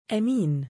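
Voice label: "2amiin" male, Arabic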